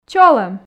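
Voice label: "чолӧм", Komi, female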